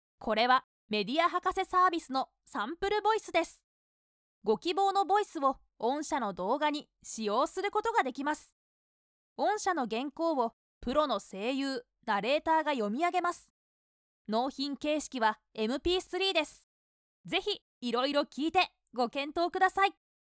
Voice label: boy, narration sample voice women